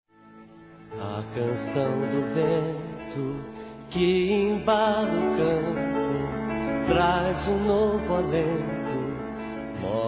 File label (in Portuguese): Aniversário pai Infantil evangélico Masculino; Telemensagens 2017; Aniversários